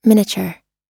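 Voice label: "miniature", American English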